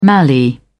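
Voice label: "mallee", English, uk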